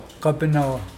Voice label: chin, Mokilese

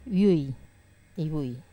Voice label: "vui", Wamesa

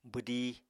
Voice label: "bwdí", Apatani

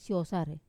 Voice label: "sosarei" to bark, Wamesa

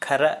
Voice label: "Cough", Santali

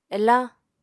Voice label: "elañ", Apatani

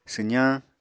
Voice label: "swzañ", Apatani

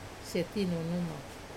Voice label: "setinunuma", gun, Wamesa

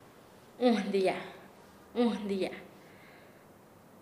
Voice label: Nasa Yuwe; quebrar; paez

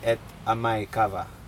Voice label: he chews kava, Aneityum

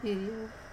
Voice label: "pirio", leaf, wamesa

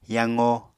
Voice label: "yaqo", Apatani

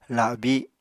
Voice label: "labi", Apatani

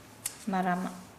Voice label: "month", Nukuoro

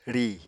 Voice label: "rìi", Apatani

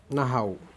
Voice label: fish turtle, Aneityum

